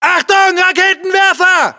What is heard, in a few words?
US threat rpg, battlechatter; cod modern warfare 2